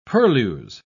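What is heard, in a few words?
"purlieus", British English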